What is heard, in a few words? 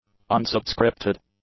"unsubscripted", english; adjectives